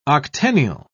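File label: "octennial", English, British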